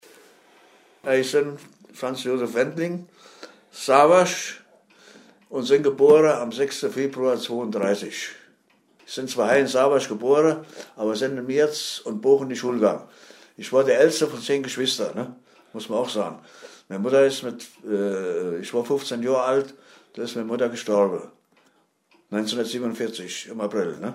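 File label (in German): vorstellung, O-Ton Hunsrück; Sabershausen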